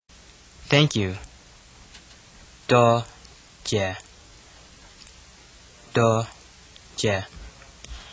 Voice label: Cantonese Thanks